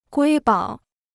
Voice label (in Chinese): "瑰宝", Chinese